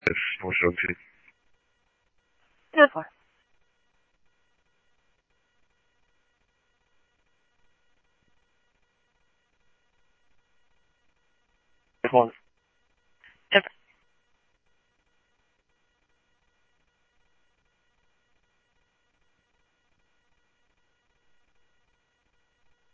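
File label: police radio scanner traffic, Mesa Simulcast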